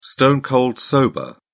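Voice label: "stone cold sober", English, British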